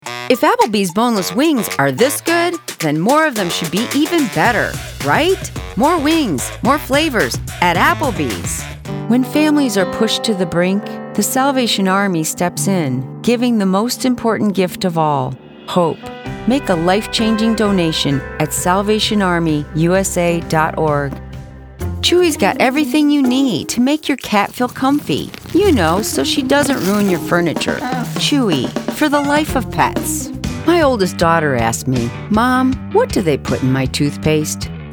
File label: Commercial